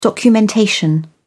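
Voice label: "documentation", British English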